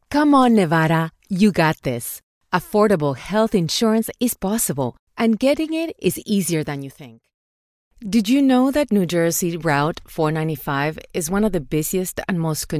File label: English Montage; ProComm